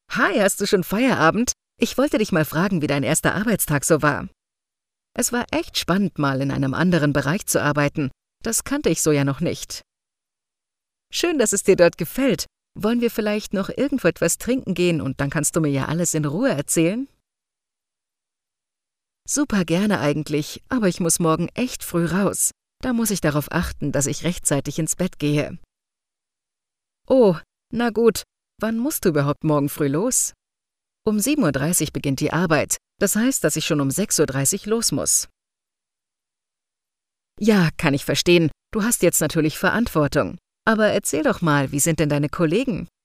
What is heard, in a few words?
Dialog